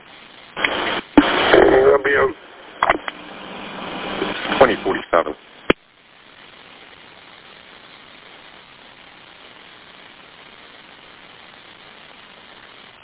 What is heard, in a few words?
Police Radio